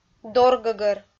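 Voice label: "доргӧгӧр", Komi, female; kpv audio dict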